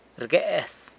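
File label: "rguez", hugs, San Lucas Quiaviní Zapotec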